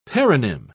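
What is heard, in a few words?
"paronym", British English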